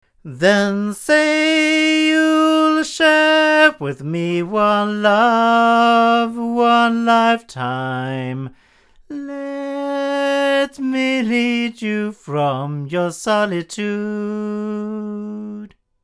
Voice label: male; LineAudio SM6i; microphones